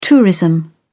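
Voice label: "tourism", British English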